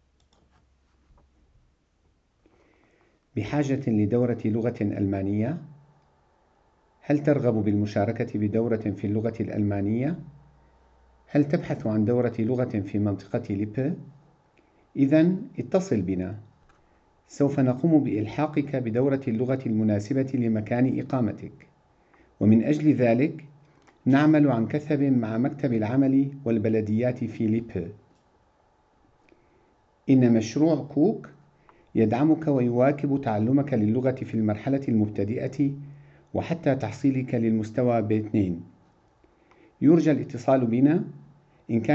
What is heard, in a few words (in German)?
arabisch